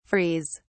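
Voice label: "frees", British English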